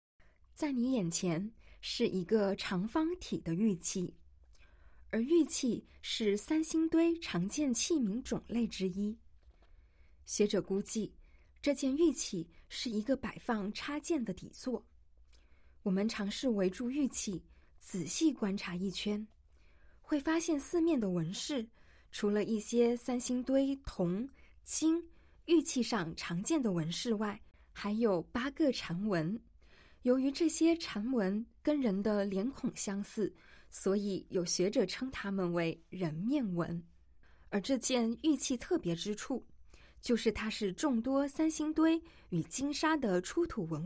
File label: Mandarin, audio-guide; gazing at sanxingdui